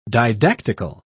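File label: "didactical", British English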